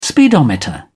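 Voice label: "speedometer", English, British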